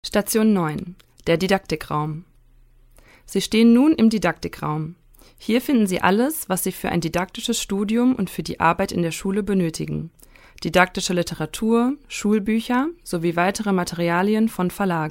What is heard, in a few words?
audioguide deutsch; bibliothek